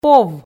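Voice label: "пов" Komi, female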